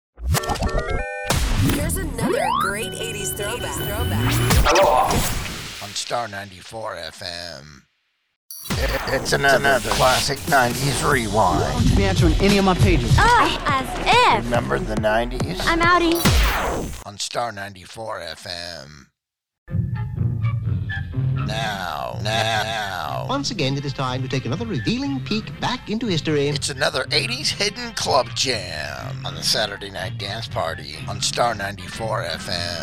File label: Imaging Demo